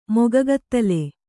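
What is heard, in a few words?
"jharati" Kannada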